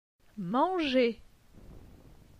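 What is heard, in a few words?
"Manger", French; phonetique; les consonnes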